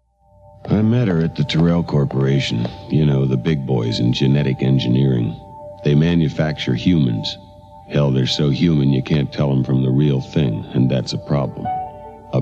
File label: Test voice-over; Blade Runner